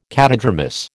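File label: "catadromous", American English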